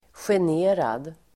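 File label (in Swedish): "generad", Swedish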